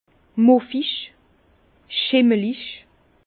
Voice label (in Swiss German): "muffig", Bas Rhin; vin; moisi